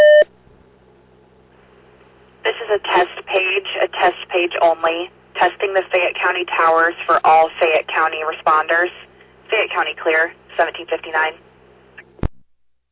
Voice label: all call, dispatch; Fayette